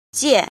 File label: "jin", Chinese